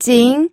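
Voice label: "jing2", Mandarin Chinese second tone; pinyin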